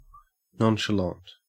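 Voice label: "nonchalant", Australian English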